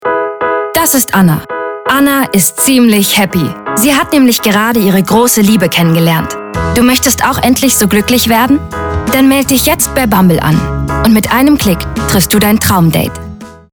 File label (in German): Werbung Dating App